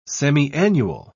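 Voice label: "semiannual", English, British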